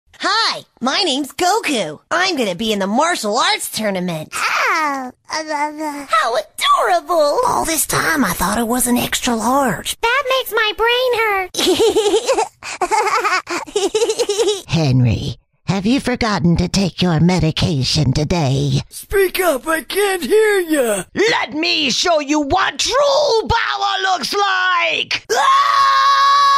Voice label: character